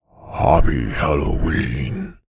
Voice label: horror voice happy halloween male creepy reversed reverb; zapsplat